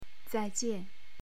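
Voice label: "zai4jian4", Chinese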